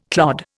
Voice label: "clod", Indian

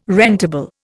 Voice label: "rentable", Indian

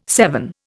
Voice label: "seven", Indian

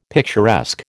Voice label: "picturesque", American

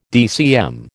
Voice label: "DCM", American

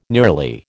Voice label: "nearly", American

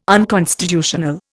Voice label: "unconstitutional", Indian

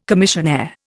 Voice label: "commissionaire", Indian

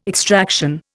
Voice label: "extraction", Indian

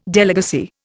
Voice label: "delegacy", Indian

